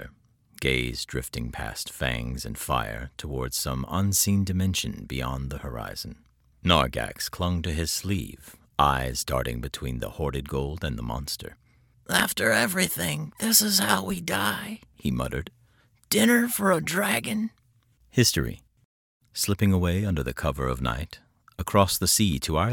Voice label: narration